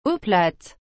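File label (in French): "eau plate", French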